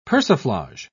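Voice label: "persiflage", British English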